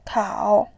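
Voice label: "kao3", Mandarin Chinese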